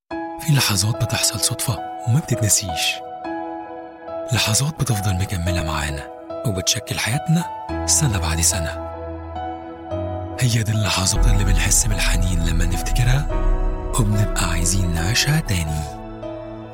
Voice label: Egyptian Arabic; kodak